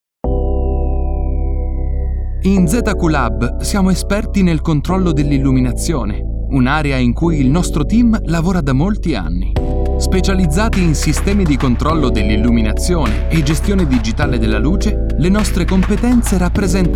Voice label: italian corporate videos